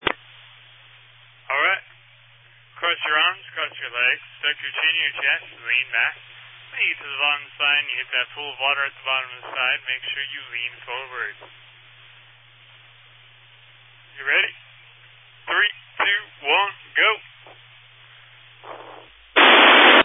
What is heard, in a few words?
Family Radio Service (FRS)